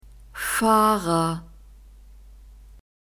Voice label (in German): "Fahrer", German; masculine